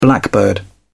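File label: "blackbird", British English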